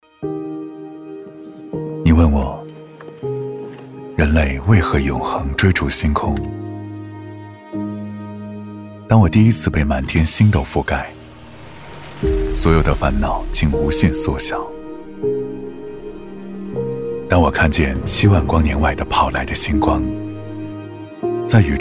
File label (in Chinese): 男国 汽车, 品质走心tvc; 奔驰; 寻属于自己的答案; 汽车tvc广告